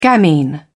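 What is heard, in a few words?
"gamine", English, British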